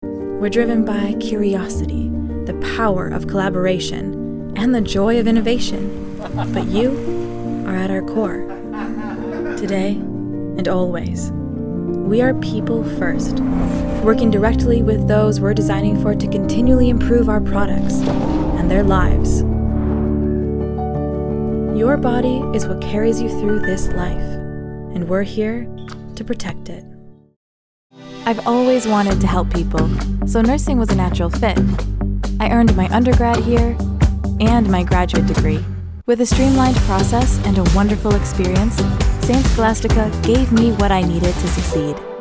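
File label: Voice Over Commercial, Smooth, Friendly, Velvety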